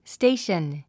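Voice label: "station", English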